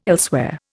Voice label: "elsewhere", Indian

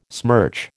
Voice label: "smirch", American

